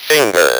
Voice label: "FINGER", Speak & Spell

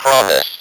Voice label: "PROMISE", Speak & Spell

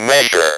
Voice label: "MEASURE", Speak & Spell

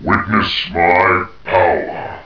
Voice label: witness my power, Battle Girl

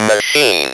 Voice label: MACHINE, Speak & Spell

